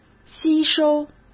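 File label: "吸收", Mandarin Chinese; chinese flashcards; hsk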